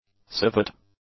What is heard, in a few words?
"civet", English; nouns